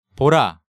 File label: "Bora", Korean